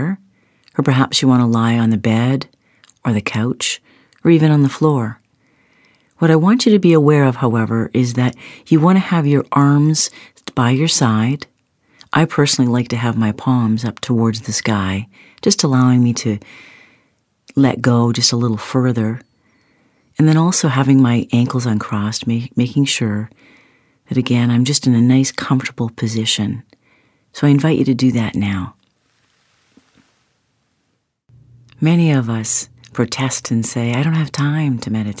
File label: playful meditation